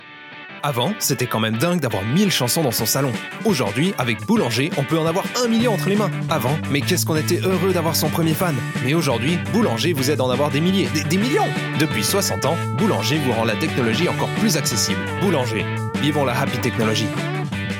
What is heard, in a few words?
French